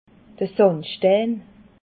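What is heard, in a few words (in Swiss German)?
"sandstei", Alsatian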